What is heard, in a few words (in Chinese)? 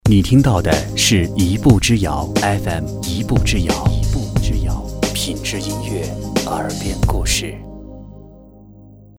男 片花 电台片花